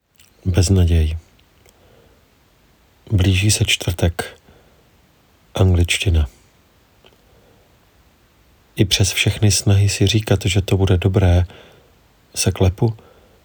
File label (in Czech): narace